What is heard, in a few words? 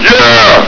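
"yeah!", male soldier voice; hl2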